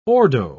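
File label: "ordo", English, British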